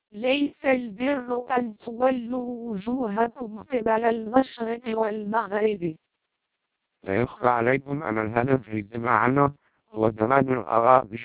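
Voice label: twelp 300 Arabic, vocoders lowrate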